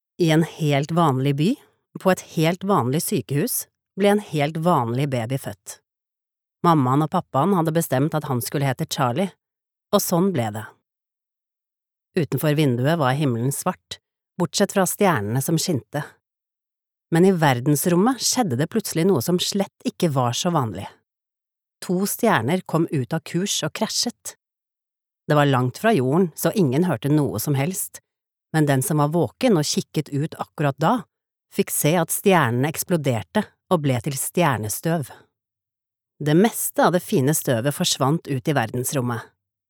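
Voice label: Norwegian narrative; norwegian, female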